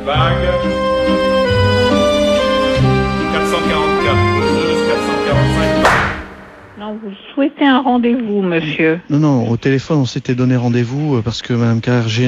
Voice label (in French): appels téléphoniques; Pascal Ducros